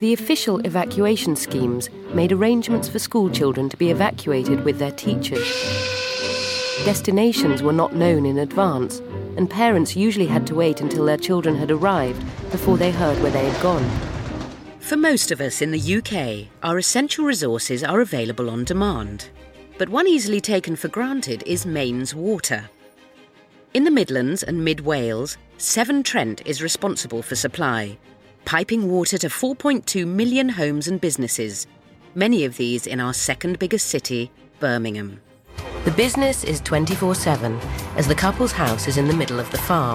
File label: Narration